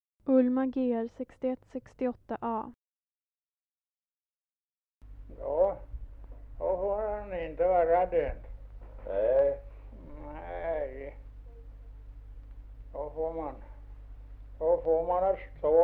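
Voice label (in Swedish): Ovansjö Gästrikland, dialekter; Folkminnen; Svenska sagor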